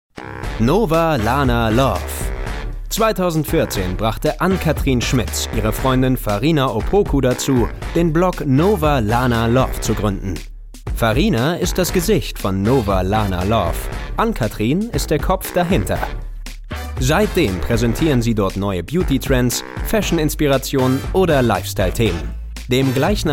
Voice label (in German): Eventankündigung; Stimmfarbe: Weich, Warm, Rauchig